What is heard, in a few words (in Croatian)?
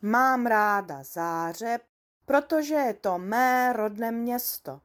češki; POP UP Zagreb